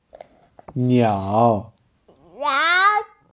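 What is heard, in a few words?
"niao3", Mandarin Chinese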